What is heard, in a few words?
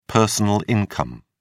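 "personal income", British English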